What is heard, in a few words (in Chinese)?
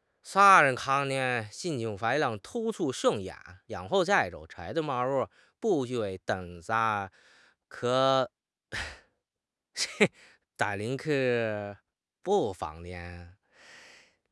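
"火焰", Mandarin Chinese; HSK6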